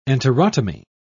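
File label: "enterotomy", British English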